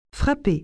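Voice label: "frapper", French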